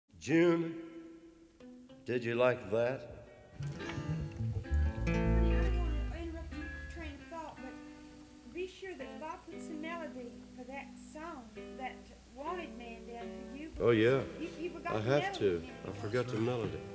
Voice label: Studio Chatter